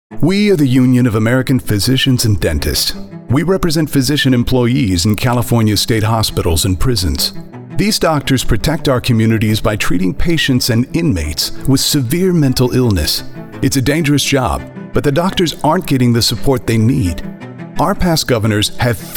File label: Radio Spot; UAPD